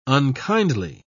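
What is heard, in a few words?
"unkindly", English, British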